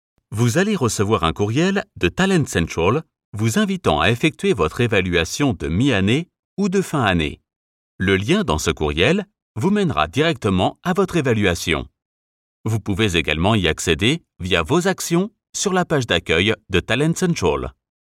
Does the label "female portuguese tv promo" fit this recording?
No